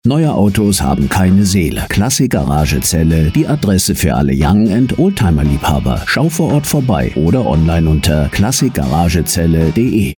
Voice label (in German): Funkspot; Classic Garage Celle